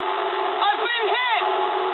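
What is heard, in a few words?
English aircraft damaged mood med; speech; War Thunder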